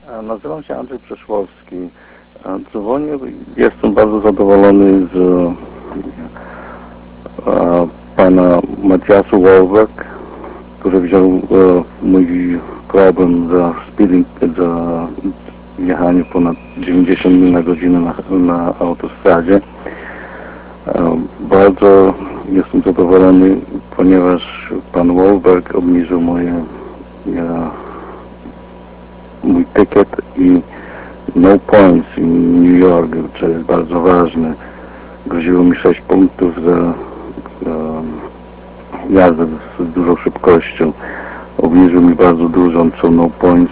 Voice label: polish